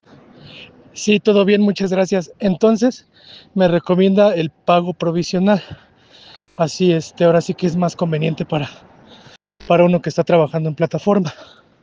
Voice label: whatsapp ptt